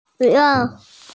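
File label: "xue2xiao4" Mandarin Chinese, female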